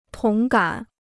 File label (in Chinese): "同感", Chinese